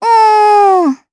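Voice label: Vox Deny Japanese; Estelle; kingsraid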